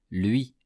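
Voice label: "lui", French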